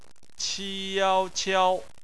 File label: "qiao", Chinese phonetics; BPMF spelling